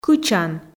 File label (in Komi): "кычан" Komi